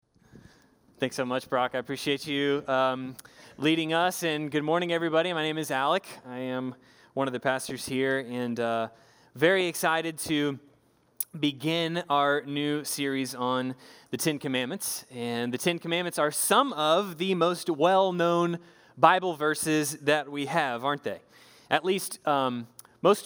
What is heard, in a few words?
english Sermon